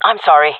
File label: sorry, jungle fem; vo; Counter Strike Model Pack; CS:GO